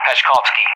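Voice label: "Pashkovsky", English; Callsign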